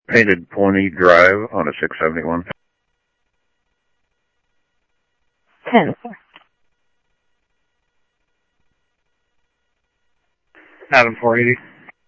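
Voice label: Sheriff East County, scanner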